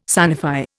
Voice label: "sanify", Indian

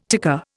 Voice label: "ticker", Indian

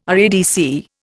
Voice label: "RADC", Indian

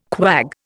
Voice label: "quag", Indian

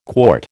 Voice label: "quart", American

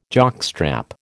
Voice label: "jockstrap", American

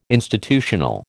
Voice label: "institutional", American

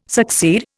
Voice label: "succeed", Indian

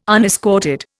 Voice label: "unescorted", Indian

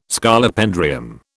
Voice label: "Scolopendrium", British

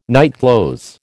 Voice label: "nightclothes", American

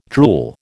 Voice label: "drool", American

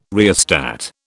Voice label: "rheostat", British

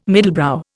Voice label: "middlebrow", Indian

